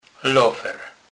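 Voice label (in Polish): "lofer", Kurpie dialect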